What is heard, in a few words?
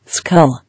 "Skull", English